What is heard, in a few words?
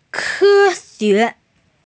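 "science", female; ke1xue2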